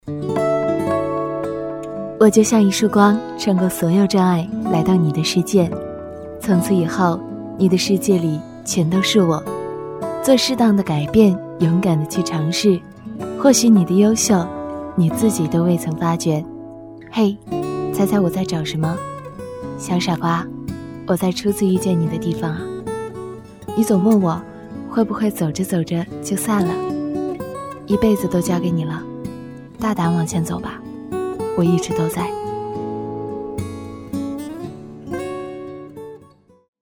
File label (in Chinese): 女 独白